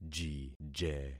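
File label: "jije", concatenated syllables; Affixing experiment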